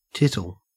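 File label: "tittle", Australian English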